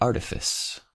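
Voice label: "artifice" English, US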